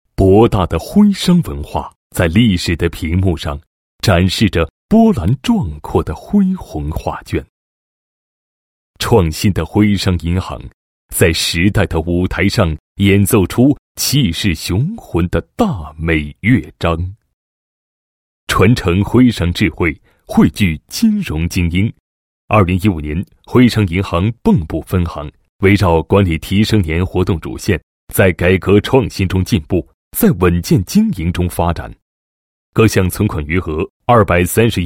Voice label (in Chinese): 男 工作汇报 银行年汇报片; 徽商银行